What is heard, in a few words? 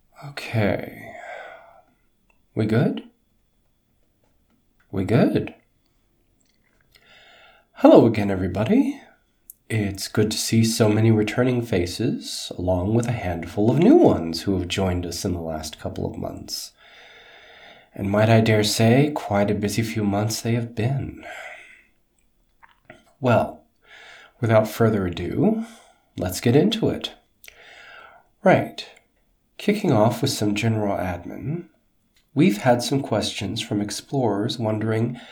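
English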